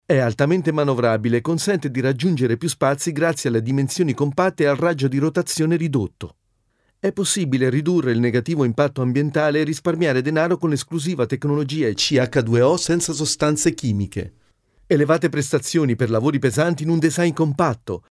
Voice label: Italian